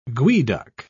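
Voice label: "geoduck", British English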